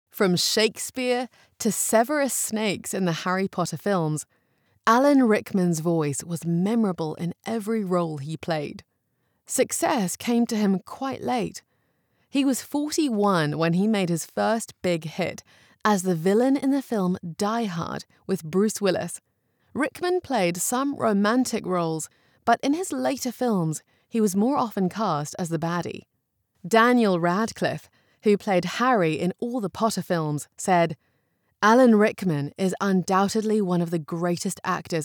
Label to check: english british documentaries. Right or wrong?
Right